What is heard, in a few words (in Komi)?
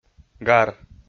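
"гар", Komi, male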